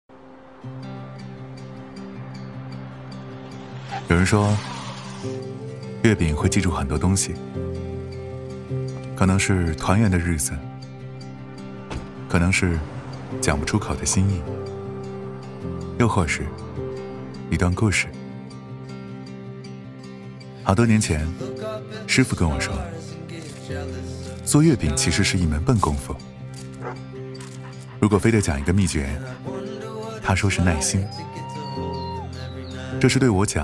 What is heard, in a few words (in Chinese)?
男; 中秋-月饼